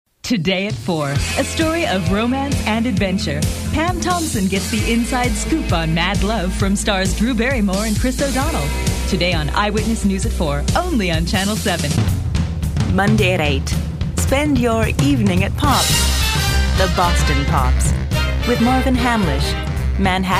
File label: Promos